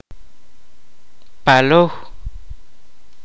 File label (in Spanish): mar, kaqchikel